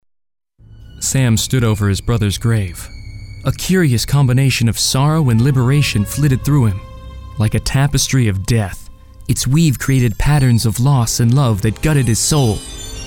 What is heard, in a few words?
Audiobook